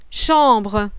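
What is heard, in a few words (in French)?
"CHAMBRE", French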